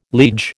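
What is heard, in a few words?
"liege", American English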